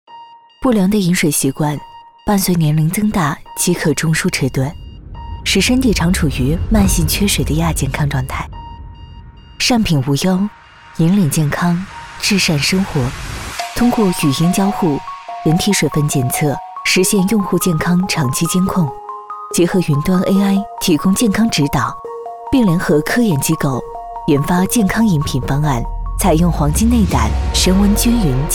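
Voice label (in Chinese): 广告 女 气质 健康生活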